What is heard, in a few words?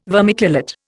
"vermiculate", Indian